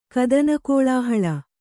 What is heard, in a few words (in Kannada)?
"ಕದನಕೋಳಾಹಳ", Kannada